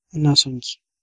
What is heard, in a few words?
i love you, hausa